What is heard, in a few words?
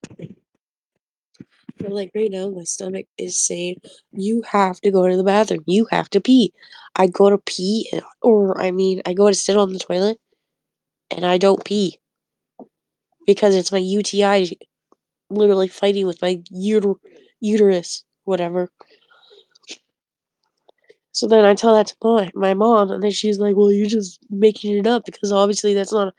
voice message